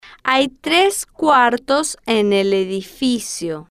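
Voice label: hay Tres Cuartos En El Edificio, Spanish, female, slow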